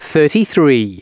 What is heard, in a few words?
announcements male